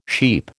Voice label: "sheep", American

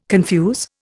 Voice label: "confuse", Indian

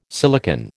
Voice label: "silicon", American

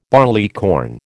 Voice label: "barleycorn", American

